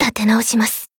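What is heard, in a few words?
Voice healed, Japanese; maturika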